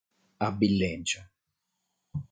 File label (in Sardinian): "Abbilència", sardu